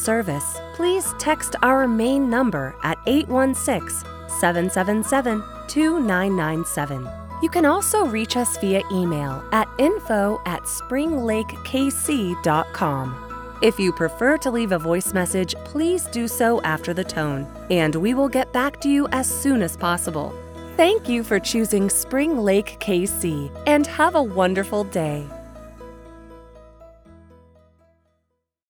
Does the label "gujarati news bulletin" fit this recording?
No